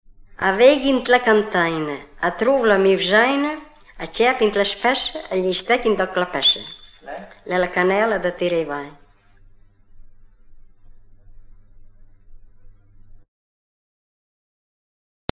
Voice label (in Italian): indovinello, romagna